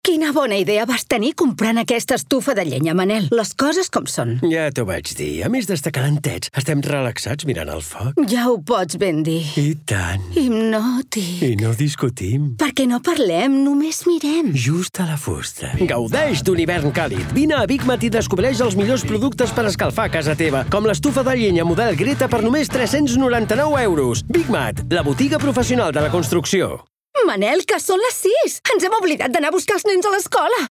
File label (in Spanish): CALEFACCION CAT; BIG MAT; MC IBIZA